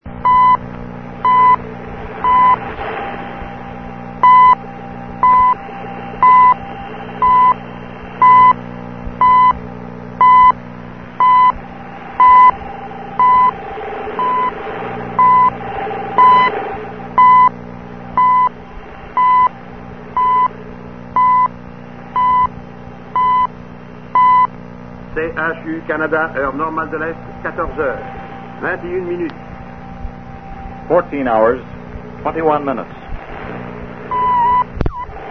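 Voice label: upper sideband; NRC Ottawa; a 'historic' recording from 1972, made in New Hampshire, USA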